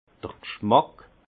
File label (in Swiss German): "gschmack", Alsace; vin; l’odeur